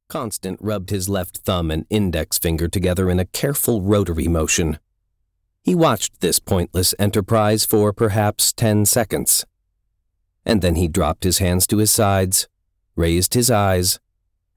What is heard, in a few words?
Audiobook